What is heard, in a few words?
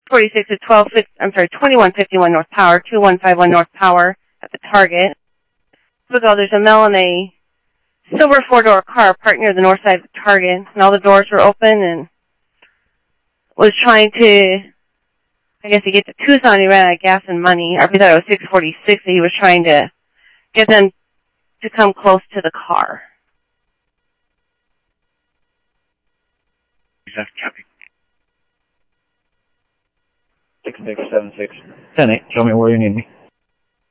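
Simulcast police department, scanner audio trunk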